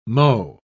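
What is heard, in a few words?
"mho", British English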